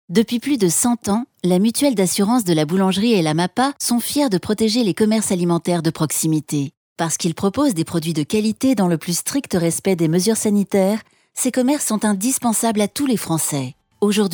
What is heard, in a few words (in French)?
Spot radio coronavirus avec musique